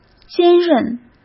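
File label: "兼任", Mandarin Chinese; chinese flashcards; hsk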